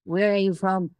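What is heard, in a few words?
Voice Acting es, female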